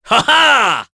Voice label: Vox Happy; Roman; kingsraid